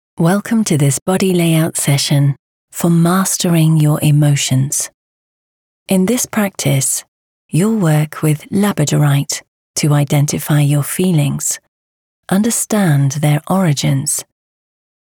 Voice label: Neutral English